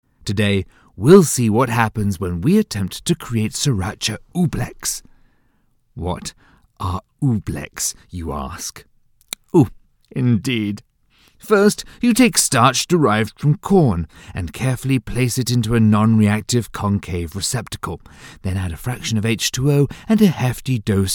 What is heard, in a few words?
Commercial; Wendys Sriracha